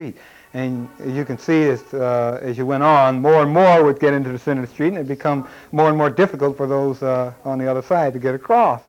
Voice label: Conversation with 41 year old black male Detroit Michigan; american english dialect